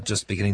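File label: "just beGinning", Standard Southern British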